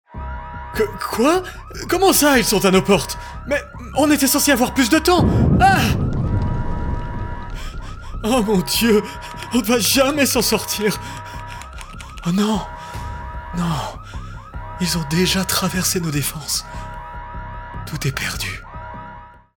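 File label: Character Natural (French)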